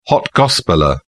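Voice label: "hot gospeller", British English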